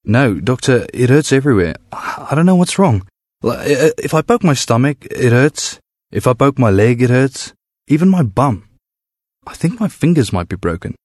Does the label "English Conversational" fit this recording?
Yes